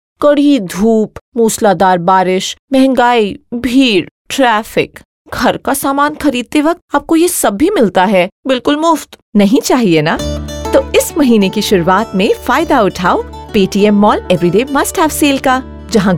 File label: Hindi, female voice; Paytm